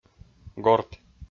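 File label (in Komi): "горт", Komi, male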